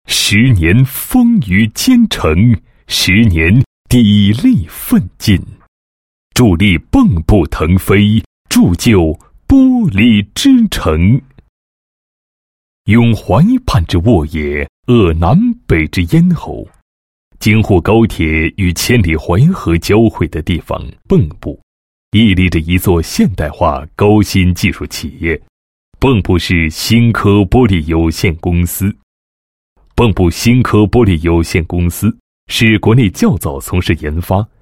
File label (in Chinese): 男-企业; 兴科玻璃宣传片